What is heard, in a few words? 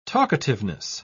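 "talkativeness", British English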